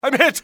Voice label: im hit, english male; XBOX; civ